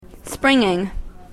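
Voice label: "springing", English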